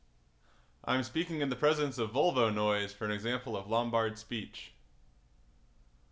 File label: car clean, Clean Speech; Volvo Noise; Lombard